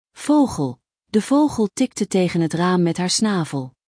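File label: "vogel", Dutch; Spelling Gr5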